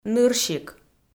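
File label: "нырщик", Komi, female; audio dict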